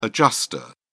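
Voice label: "adjuster", British English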